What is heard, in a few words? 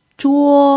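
"zhuo1", Mandarin Chinese pinyin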